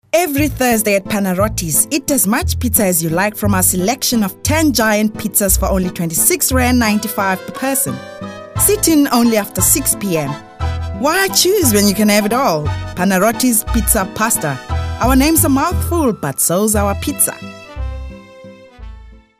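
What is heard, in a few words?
english South African female commercial; voice demos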